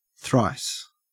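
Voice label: "thrice", Australian English; pronunciations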